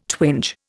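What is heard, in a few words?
"twinge", Indian English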